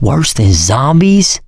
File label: heard special, survivor voice; Left 4 Dead 2; mechanic